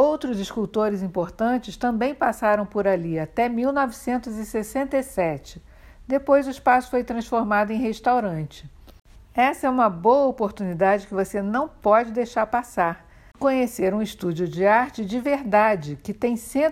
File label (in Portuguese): Audioguia resumido Babuino Atelier do Canova